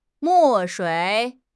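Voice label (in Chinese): "墨水", Chinese; HSK; HSK6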